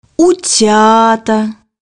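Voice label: "ducklings", Russian; bukvar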